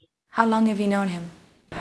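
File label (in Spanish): Voice Acting, Spanish, female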